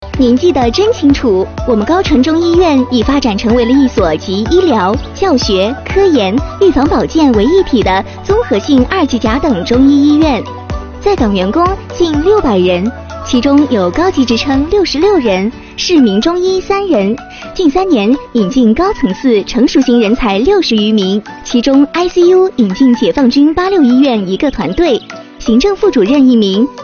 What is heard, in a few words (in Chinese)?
MG年轻